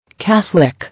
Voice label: "Catholic", English